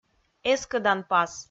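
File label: "эскӧданпас", Komi, female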